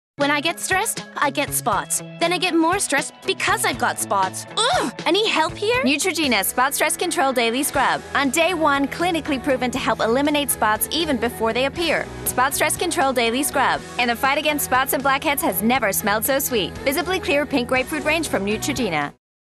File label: commercial; Neutrogena Stress Control